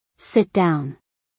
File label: Sit down - english; Simple Commands